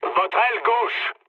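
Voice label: French left wing mood low; War Thunder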